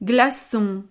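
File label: "glacon", French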